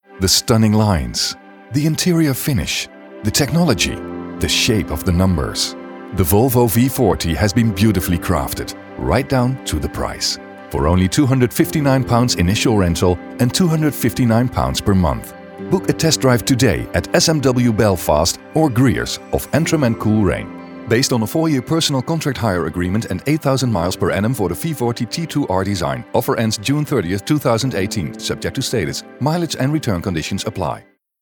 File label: English International M, accent of the netherlands